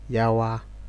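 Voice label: "yawa", Nigerian Pidgin, male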